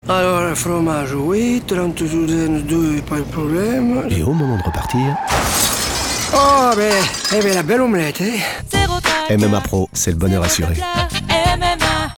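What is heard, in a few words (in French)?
accent Tarn et Garonne Bourguignon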